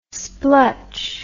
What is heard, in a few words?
"splutch", English